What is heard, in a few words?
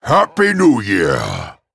Male Dragon